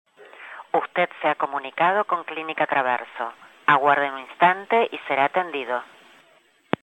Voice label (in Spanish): mensajes telefonicos